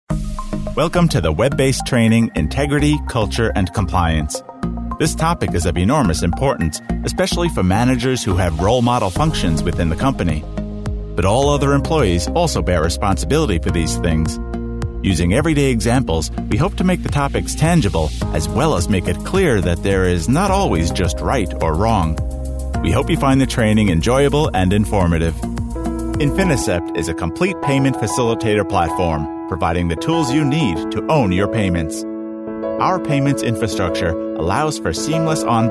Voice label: English American e-learning